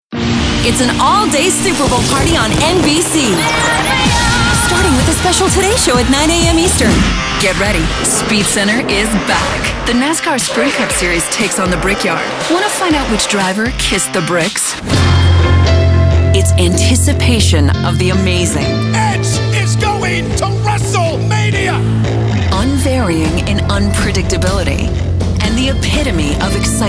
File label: tv affiliate female sports